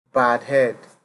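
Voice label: "bad head", Nigerian Pidgin, male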